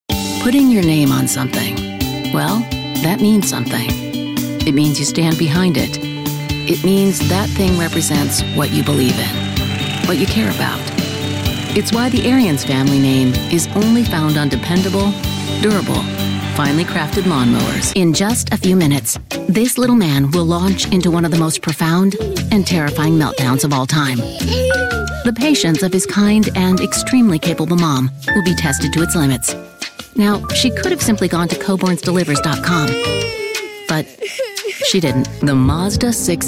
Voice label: Commercial Voice Over